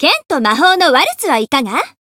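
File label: encounter, Japanese; shayne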